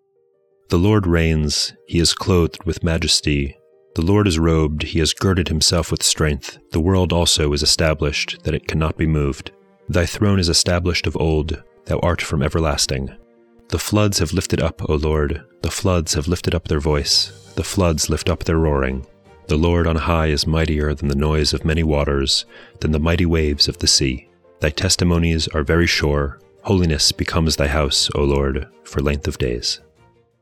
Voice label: poetry and prayer psalm english; Meir Soloveichik